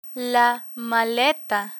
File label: "maleta", Spanish; eme